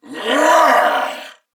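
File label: Throw Grenade Male, en; Battle; Corpus Venus; Warframe; CorpusVenusMaleB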